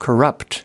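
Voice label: "corrupt", US English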